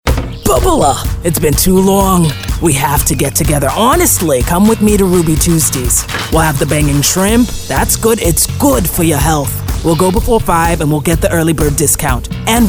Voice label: Character